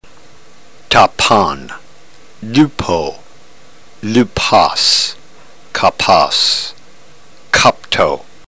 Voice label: drill, ntgreek